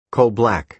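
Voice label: "coal-black", American English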